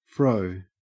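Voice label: "fro", Australian English; pronunciations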